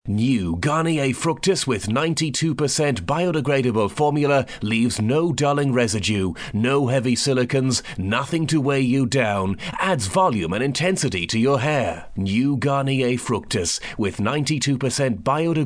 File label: UK radio ads